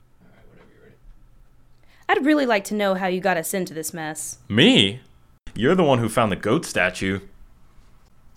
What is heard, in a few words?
Banter